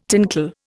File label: "tinkle", Indian